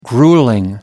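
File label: "grueling", British English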